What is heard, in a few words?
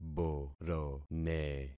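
"borone", concatenated syllables; Affixing experiment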